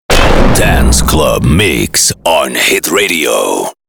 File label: dance club mix liner